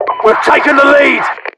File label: announcer flag taken